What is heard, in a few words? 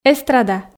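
"эстрада", Komi, female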